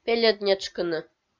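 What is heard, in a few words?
"пельӧд нетшкыны", Komi, female; audio dict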